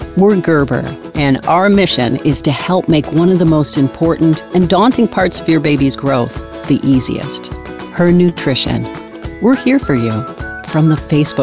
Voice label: Corporate E-Learning